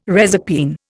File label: "reserpine", Indian